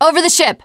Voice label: generic, teengirl, voice